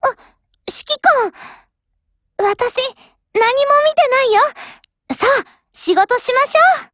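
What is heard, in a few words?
HELLO, Japanese; GF Alarm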